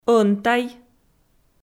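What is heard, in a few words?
"ӧнтай", Komi, female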